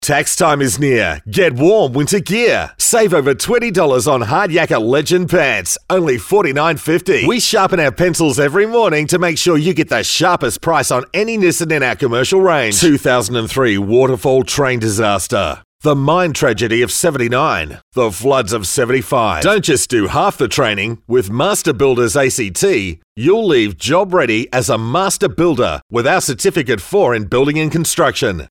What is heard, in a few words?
Hard Sell